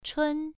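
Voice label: "chun" Mandarin, first tone, pronunciation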